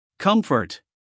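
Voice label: "comfort", American English, male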